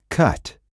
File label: "cut", spanish